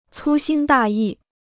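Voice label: "粗心大意", Chinese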